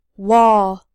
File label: "wall", English